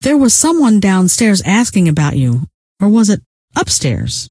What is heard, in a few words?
someone asking, scientist female